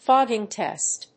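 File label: "fogging test", English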